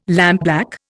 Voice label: "lampblack", Indian